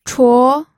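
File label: "chuo", pinyin, second tone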